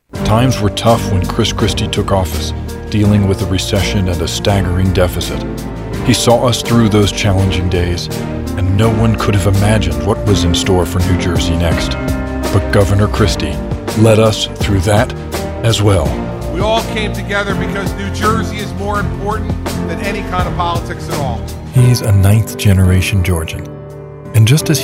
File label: english american